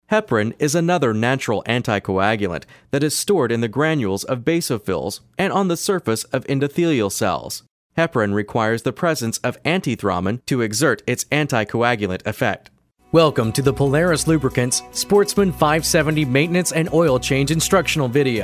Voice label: Narration